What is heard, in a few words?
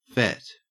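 "fet", Australian English; pronunciations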